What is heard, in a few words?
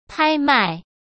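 "拍卖", Mandarin Chinese; hsk; chinese-flashcards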